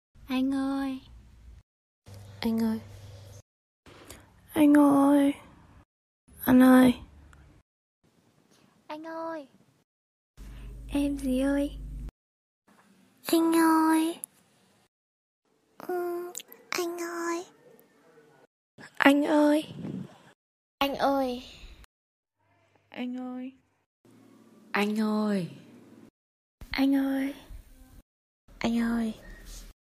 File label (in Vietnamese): tong hop tieng con gai noi anh oi sieu de thuong